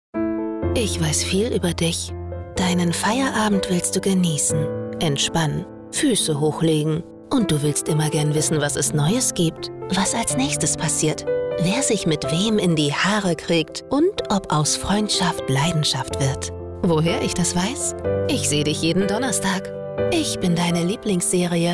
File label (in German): Werbung; ARD